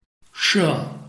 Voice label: "she4", Chinese

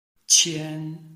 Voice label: "qian1", Chinese

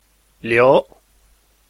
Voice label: "liu2", Chinese

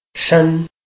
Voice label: "shen1", Chinese

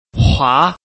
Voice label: "hua2", Chinese

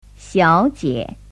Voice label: "xiao3jie3", Chinese

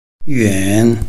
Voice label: "yuan3", Chinese

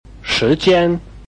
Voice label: "shi2 jian1", Chinese

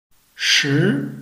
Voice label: "shi2", Chinese

